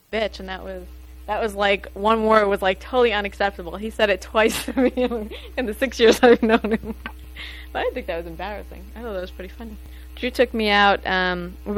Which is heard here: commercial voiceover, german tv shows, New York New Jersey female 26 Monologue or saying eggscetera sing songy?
New York New Jersey female 26 Monologue